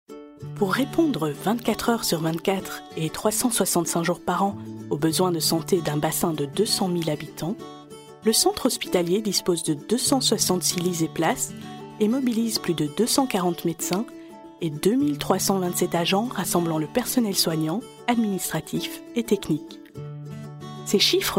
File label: French